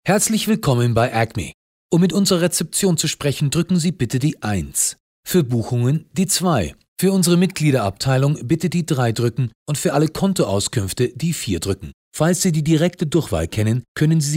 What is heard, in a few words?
German